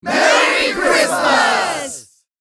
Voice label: Mixed crowd merry christmas